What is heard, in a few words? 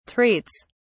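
"treats", British English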